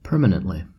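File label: "permanently", American English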